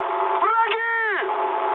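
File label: Russian enemy detected mood high, speech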